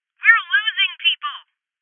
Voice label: Voices Heavy Losses Female

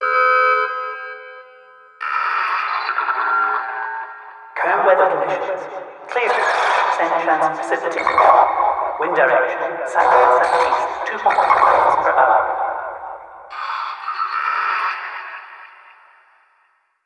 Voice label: Rocket Center Announcement; Alterna SFX

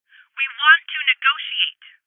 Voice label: Voices Postpone Female

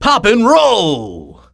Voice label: vo prize popcorn machine; Prizes

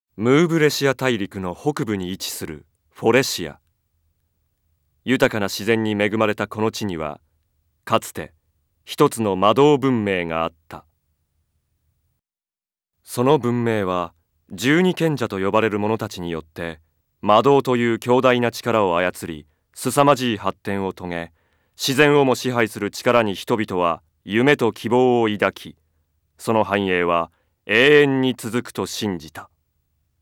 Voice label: Voice, Narration; Blaze & Blade Eternal Quest

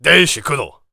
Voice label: warrior conversation emotion angry; Aragami

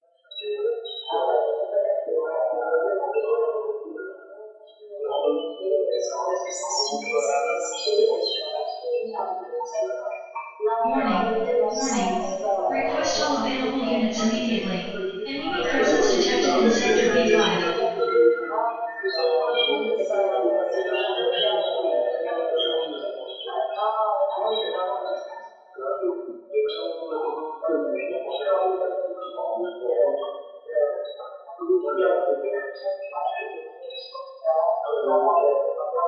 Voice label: voice comm loop; Drifting Lands

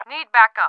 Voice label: English Back

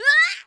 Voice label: First Bail Female; Kelly Slater Pro Surfer; macOS, Windows gamerip

